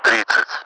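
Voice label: Russian speech, Mi-8MT; thirty; DCS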